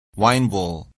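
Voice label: "winebowl", English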